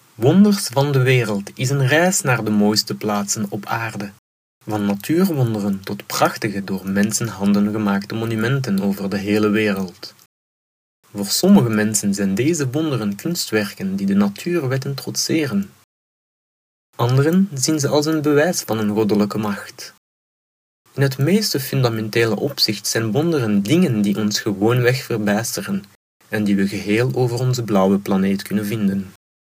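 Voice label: Flemish, narration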